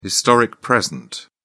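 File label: "historic present", British English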